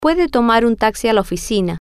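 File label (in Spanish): puede tomar taxi oficina, Spanish, female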